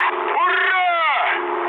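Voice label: player killed enemy, mood low, Russian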